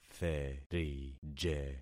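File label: "therije", concatenated syllables; Affixing experiment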